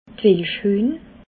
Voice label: "welschhuehn", Alsace

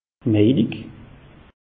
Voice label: "mejlig", alsace; meteo

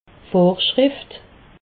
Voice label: "vorschrift", alsace

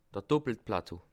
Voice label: "doppelt", alsace

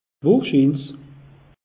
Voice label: "wohrschiins", alsace; meteo

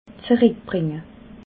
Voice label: "zeruckbringe", alsace

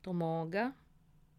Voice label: "manga", alsace

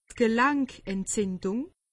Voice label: "gelankentzindung", alsace; medecine

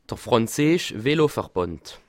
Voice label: "franzeesch", alsace

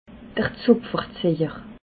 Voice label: "zapfeziejer", alsace

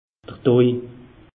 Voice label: "doi", alsace; meteo

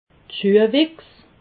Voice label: "schuehwichs", alsace

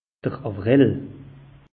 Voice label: "awrell", alsace; meteo

